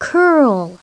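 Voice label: "curl", English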